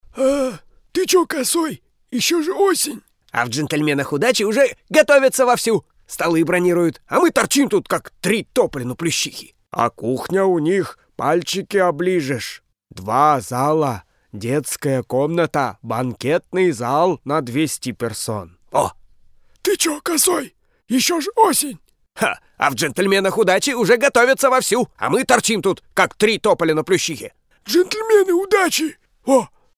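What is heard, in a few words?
parod, parody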